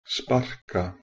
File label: "sparka", Proto Germanic